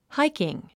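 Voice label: "hiking", English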